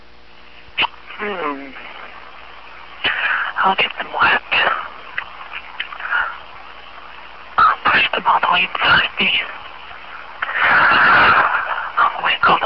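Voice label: unintelligible inet transmit, silly lo-fi orgasms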